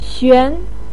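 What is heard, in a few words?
"xuan", Mandarin pinyin, second tone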